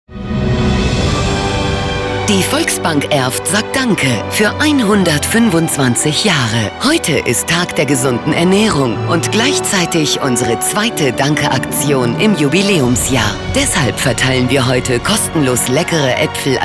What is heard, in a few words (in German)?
Werbung; Volksbank